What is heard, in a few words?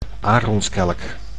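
"aaronskelk", Dutch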